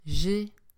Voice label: "G", french